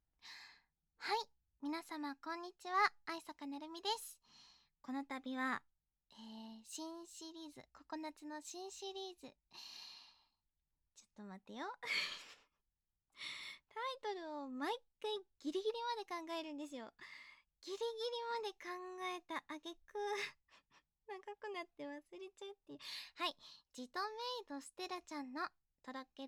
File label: Freetalk, ASMR